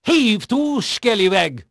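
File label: Dutch male, voice; Pirates Of The Caribbean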